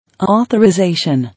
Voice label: "Authorization", English

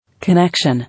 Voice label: "Connection", English

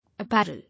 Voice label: "Apparel", English

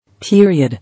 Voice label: "Period", English

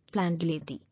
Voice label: "Landlady", English

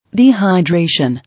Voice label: "Dehydration", English

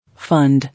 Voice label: "Fund", English

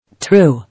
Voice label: "TRUE", English

